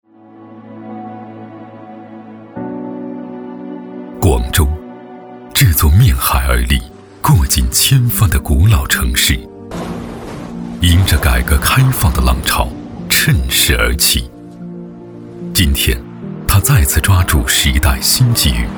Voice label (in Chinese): 男 宣传 政府 片 走心时尚; 广州